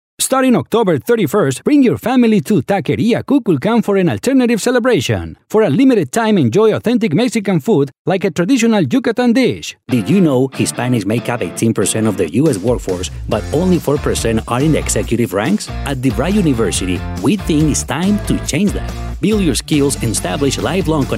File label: male spanish colombian english with latam accent compilation